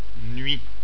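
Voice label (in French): "nuit", French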